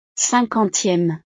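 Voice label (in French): "cinquantieme", French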